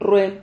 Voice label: "rrue", silabas

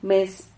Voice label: "mes", Silabas

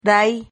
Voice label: "rai", Silabas

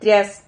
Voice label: "trias", Silabas

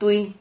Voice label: "tuy", Silabas

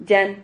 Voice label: "llan", Silabas

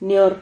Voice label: "nior", Silabas

